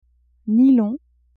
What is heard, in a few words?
"nylon", French; vetements